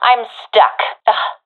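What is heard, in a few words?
pinned, professional female; vo; agents; Source Model Packs; Counter Strike Model Pack; CS2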